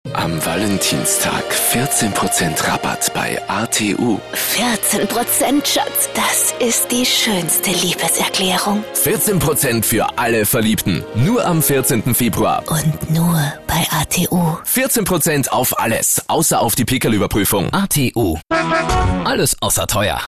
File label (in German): AT Werbung Freundlich